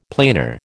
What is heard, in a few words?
"planar", American